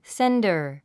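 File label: "sender", English word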